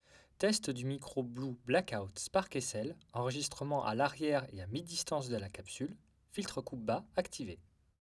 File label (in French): Voix Filtre bas active; Alarriere; SparkSL